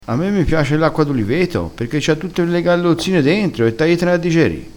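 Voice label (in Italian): "gallozzine", vocabolario dialettale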